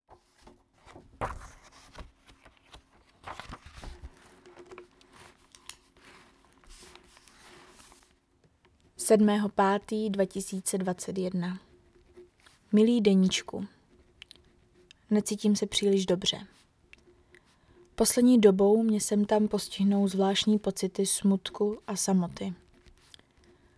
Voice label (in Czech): narace